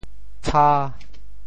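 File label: "tsha5", Teochew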